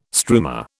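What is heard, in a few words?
"struma", British English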